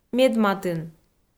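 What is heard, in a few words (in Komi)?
"медматын", Komi, female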